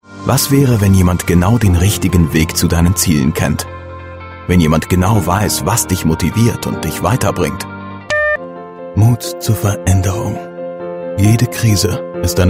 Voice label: german voice over male commercial